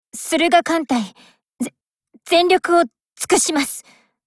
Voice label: warcry